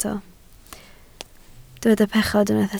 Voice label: "pechod", Welsh

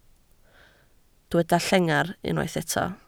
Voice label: "llengar", Welsh

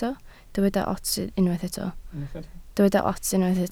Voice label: "ots", Welsh

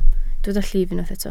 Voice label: "llif", Welsh

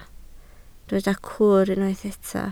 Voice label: "cwr", Welsh

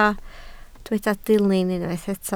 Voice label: "dilyn", Welsh

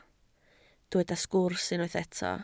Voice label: "sgwrs", Welsh

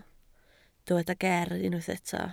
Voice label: "ger", Welsh

